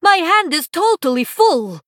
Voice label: Female Draenei ERROR HAND FULL